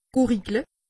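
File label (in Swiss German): "gurigle", Alsatian; medecine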